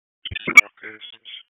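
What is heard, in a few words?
EMS Dispatch, p25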